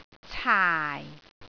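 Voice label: "caai4", Cantonese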